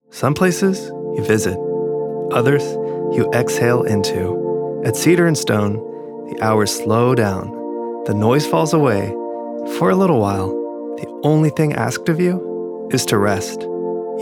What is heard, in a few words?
wellness spa retreat cedar stone, serene offhand